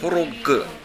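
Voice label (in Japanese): "horogu", 南山形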